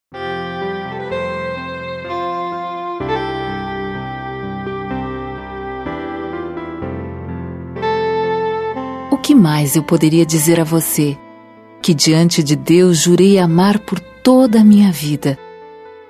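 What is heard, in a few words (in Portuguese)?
Namorados, female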